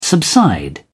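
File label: "subside", British English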